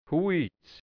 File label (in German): "Huiz", Hianzisch Mundart; s Holz